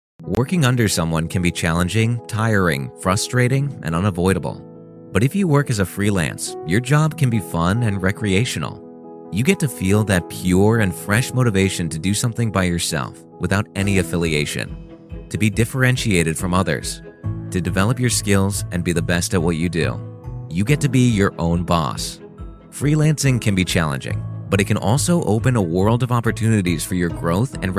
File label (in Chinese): 美式英语 商业, 外籍男英; 外语